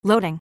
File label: Loading, en